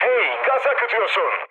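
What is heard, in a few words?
Turkish damaged water, mood low, speech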